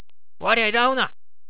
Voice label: "irau", HIROSHIMA ben; いらう さわる 汚いものにさわる時に使う場合が多い。（例）うんちをいらう